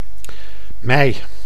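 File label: "mei", Dutch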